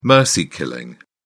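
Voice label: "mercy killing", British English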